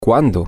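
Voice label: when, Spanish, female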